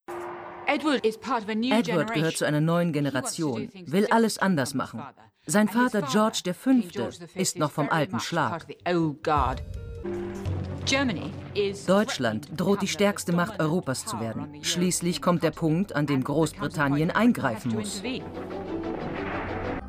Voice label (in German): kurz tiefer; ZDF History